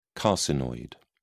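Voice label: "carcinoid", British English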